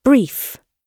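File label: "brief", British English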